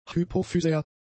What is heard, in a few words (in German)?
"hypophysär", German; adjectives